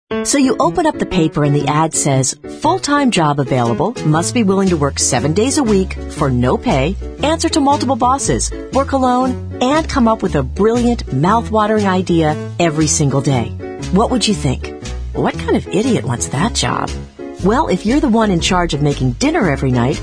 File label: commercial, voice talent